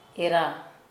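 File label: "woman", Kera Mundari, female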